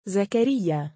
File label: male; zakariiyaa; Arabic